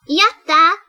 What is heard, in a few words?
"Yay", Japanese